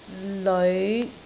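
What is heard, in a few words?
"leoi5", Cantonese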